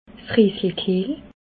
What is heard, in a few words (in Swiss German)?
"reeselekehl", Alsace